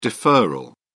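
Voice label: "deferral", British English, dictionary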